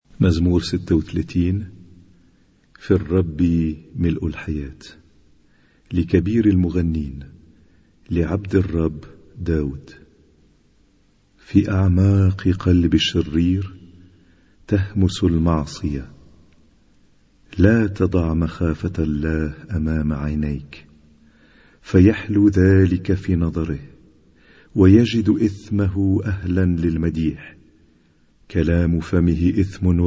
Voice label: Arabic Psalm Zaboor